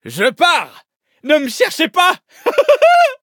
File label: upgrade, fr; Cookie Run